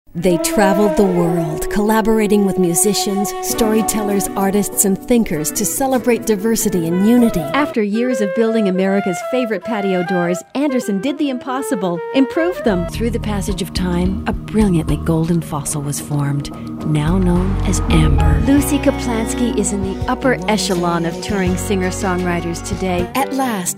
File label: commercials